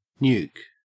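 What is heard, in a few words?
"nuke", Australian English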